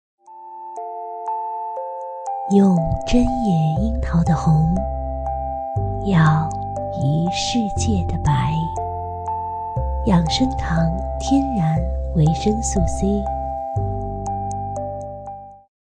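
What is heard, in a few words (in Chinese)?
女广告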